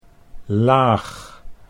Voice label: "laag", Dutch